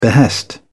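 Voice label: "behest", en, uk British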